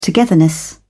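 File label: "togetherness", British English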